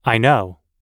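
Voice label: "i know", US English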